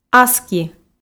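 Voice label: "аски", Komi, female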